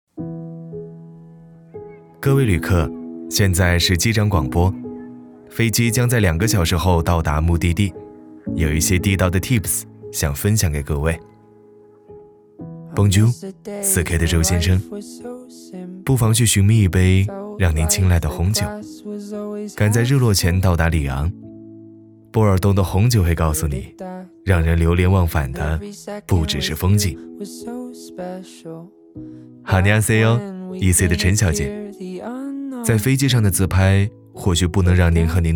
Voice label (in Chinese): 男 温暖素人 信用卡; 平安